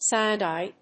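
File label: "sine die", English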